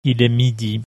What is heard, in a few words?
"midi", French